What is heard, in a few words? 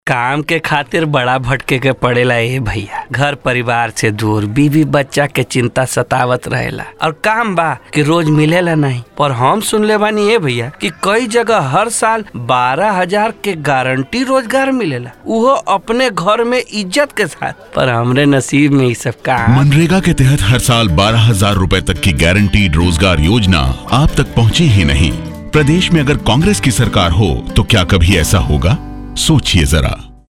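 character, male voice; manrega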